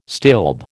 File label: "stilb", American